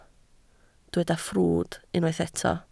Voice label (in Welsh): "ffrwd", Welsh